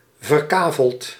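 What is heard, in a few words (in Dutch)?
"verkaveld", Dutch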